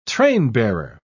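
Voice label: "trainbearer", British English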